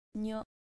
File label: "nyo", japonstina